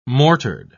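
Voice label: "mortared", British English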